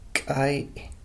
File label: "k'ai'", hazel nut, Cahto